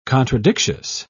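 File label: "contradictious", British English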